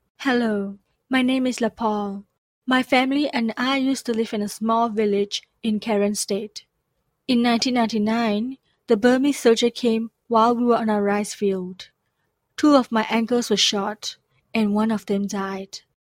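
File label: Burmese English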